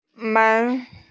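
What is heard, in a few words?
"men2", Mandarin Chinese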